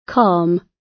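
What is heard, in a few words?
"calm", British English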